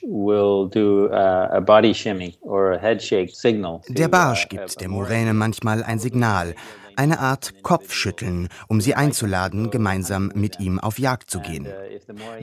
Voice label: Voice Over